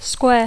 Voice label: "square", English